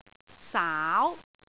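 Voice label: "saau2", Cantonese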